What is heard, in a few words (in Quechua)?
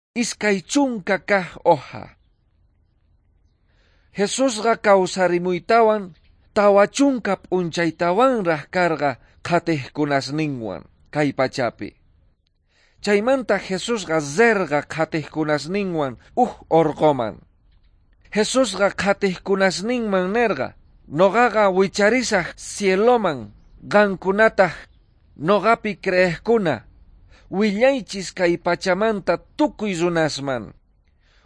quechua bolivian cochabamba; good news